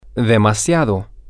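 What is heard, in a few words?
Spanish, too much, male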